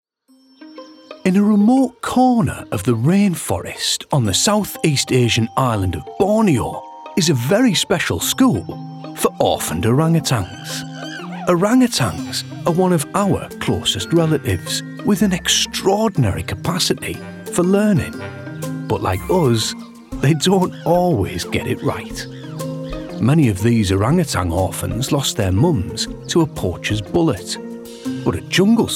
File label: DOCUMENTARY; ProReel